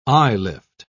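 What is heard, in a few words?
"eyelift", British English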